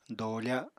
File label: "doofa", Apatani